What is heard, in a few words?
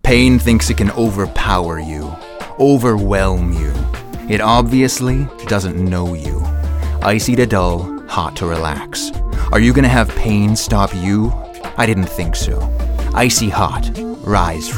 Commercial VO